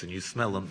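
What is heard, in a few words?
"anD you smell", Standard Southern British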